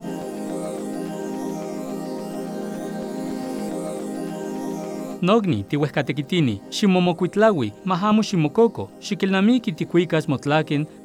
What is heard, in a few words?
NAHUATL